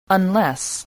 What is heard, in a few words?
"unless", English spelling voice